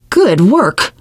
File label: nice job, teengirl; survivor voice